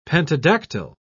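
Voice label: "pentadactyl", English, British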